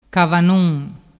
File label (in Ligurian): "cavanun", dialettu